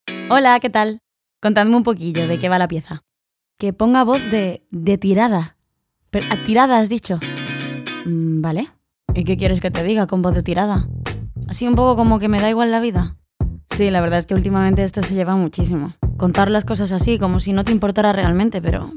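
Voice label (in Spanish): Casual, español castellano; Genérica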